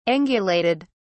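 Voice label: "angulated", British English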